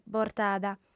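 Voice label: "bortada", female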